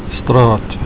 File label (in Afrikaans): "straat", Afrikaans